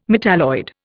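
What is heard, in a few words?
"metalloid", English, Indian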